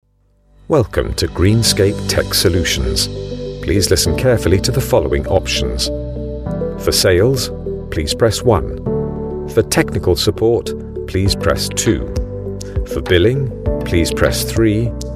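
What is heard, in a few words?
telephone ivr montage, Documentary Narrator Voice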